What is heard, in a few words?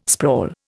"sprawl", Indian